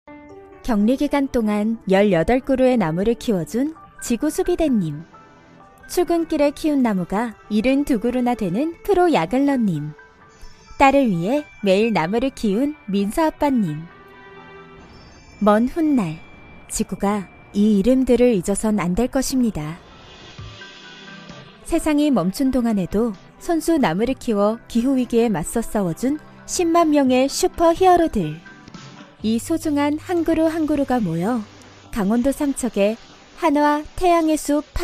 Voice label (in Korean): 내레이션 친절하고 따뜻한